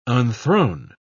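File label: "unthrone", British English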